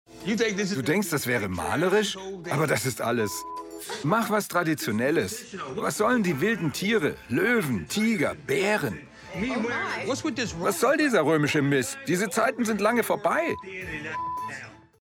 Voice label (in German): Voice over; Sixx; My fair Wedding